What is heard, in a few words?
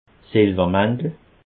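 "silwermantel", Alsatian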